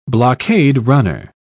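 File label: "blockade-runner", British English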